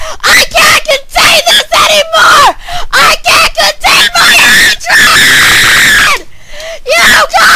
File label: squeaker rage; freak fortress 2